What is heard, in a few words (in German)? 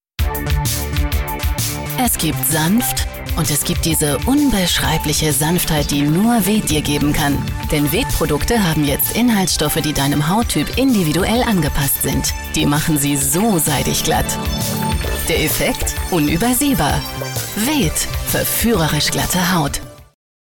WERBUNG TV; Veet